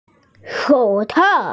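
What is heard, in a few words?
"shou tao" (gloves), Chinese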